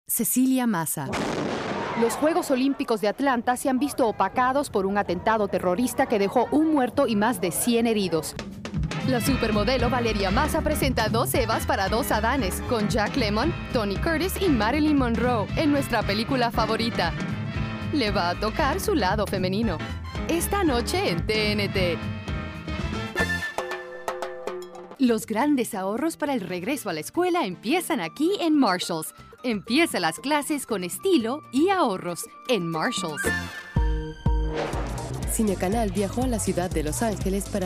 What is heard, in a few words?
Spanish